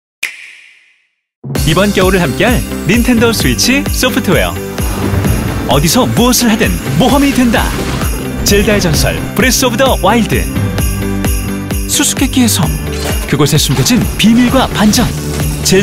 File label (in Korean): 따뜻한 20대; 닌텐도스위치 겨울 라인업